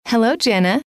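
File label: hello, female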